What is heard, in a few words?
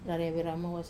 "raria veramowas", future days, Wamesa